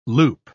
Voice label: "loop", pronounce

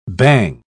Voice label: "bang", pronounce

